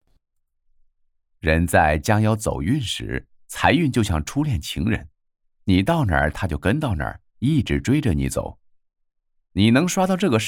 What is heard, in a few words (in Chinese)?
治愈美文